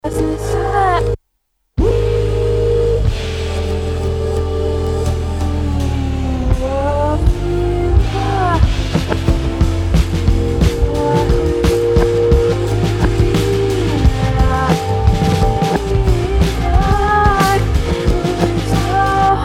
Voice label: Commercial Break